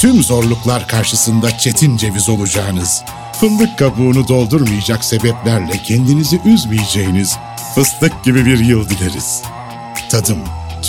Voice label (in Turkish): kampanya, seslendirme